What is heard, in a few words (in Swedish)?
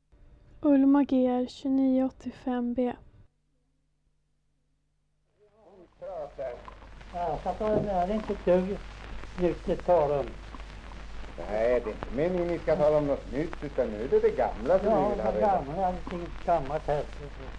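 Östra Ny Östergötland, dialekter; Folkminnen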